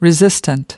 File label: "resistant", us